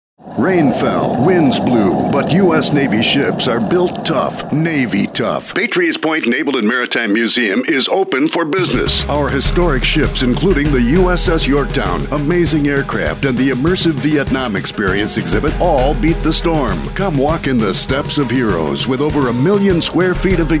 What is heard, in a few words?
weather radio; PATRIOTS POINT